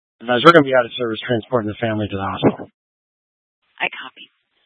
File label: Fire Departments, scanner